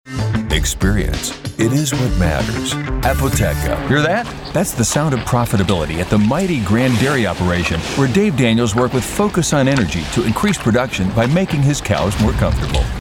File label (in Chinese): 轻快广告